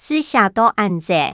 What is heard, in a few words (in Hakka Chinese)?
"字寫到恁媸", 客語